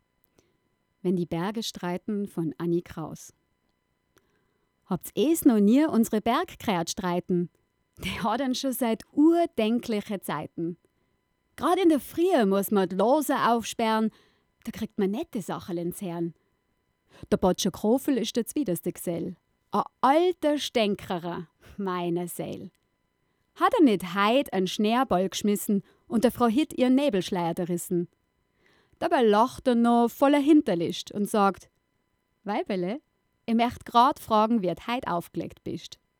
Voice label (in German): gedicht